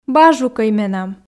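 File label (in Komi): "бажукӧй менам", Komi, female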